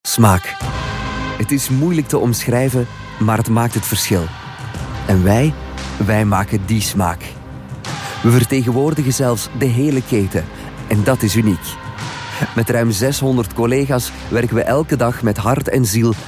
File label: flemish voiceover corporate